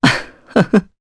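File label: Vox Happy Japanese; Pavel; kings raid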